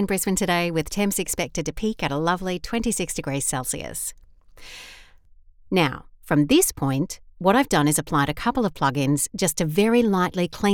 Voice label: Studio Sample, voiceover